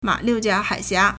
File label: "ma3liu4jia3hai3xia4", Chinese